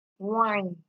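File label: "whine", Nigerian Pidgin, female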